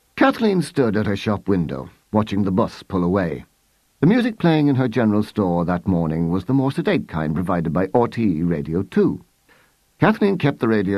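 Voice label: drama; 20s-30s. Male. Irish.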